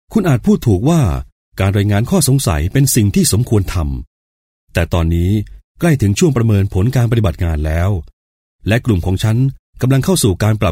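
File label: Thai, male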